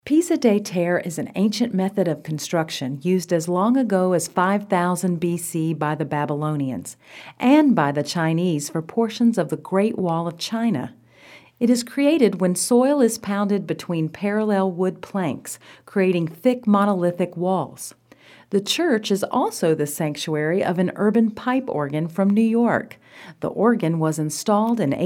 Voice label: Reading